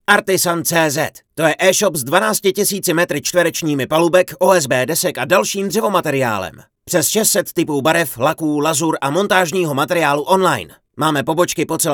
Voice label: Mužský hlas - voiceover/dabing; (60 sekund)

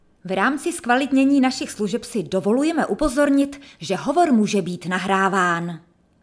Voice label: zaznamnik, zensky voiceover; profesionální ženský voiceover

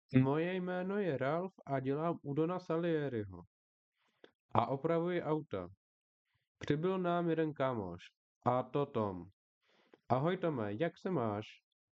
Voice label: profesionalni muzsky hlas

